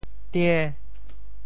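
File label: "tie~5", Teochew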